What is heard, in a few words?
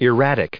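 "erratic", English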